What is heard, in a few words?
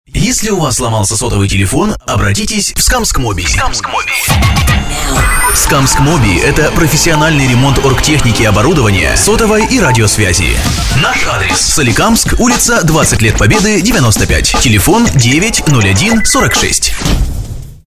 rus, dictory men